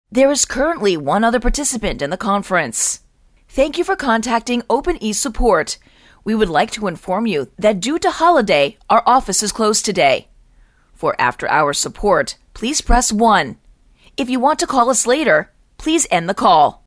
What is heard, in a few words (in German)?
Sprecher Native speaker; professionelle Native Speaker